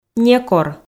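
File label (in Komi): "некор", female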